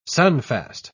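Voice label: "sunfast", English, uk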